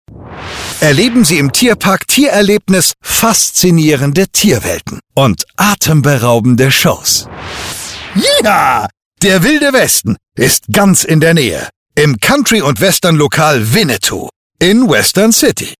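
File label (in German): begeistert aktionistisch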